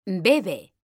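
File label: "bebe", Spanish, female; mekanta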